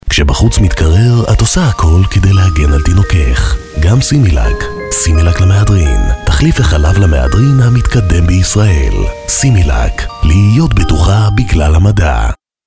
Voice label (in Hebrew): קריינות; סימילאק